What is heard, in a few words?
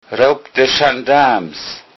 police, lowsaxon